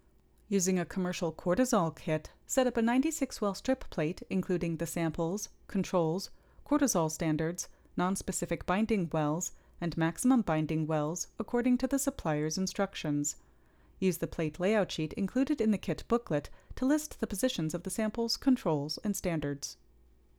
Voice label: Voiceover